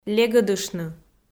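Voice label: "легӧдыштны" Komi, female; kpv audio dict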